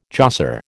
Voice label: "josser", American

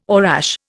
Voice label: "orache", Indian

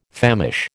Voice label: "famish", American

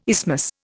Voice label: "isthmus", Indian

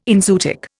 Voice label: "enzootic", Indian

